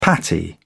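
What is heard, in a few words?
"patty", British English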